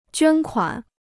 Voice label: "捐款", Chinese

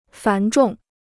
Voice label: "繁重", Chinese

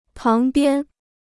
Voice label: "旁边", Chinese

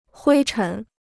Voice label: "灰尘", Chinese

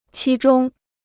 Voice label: "期中", Chinese

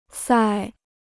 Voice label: "塞", Chinese

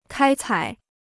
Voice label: "开采", Chinese

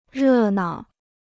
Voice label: "热闹", Chinese

